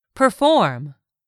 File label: "PERFORM", English; 3000 words